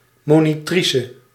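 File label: "monitrice", Dutch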